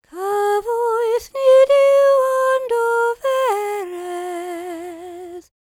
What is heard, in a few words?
WELSH F, World Voices